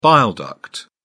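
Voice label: "bile duct", British English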